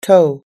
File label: "toe", us english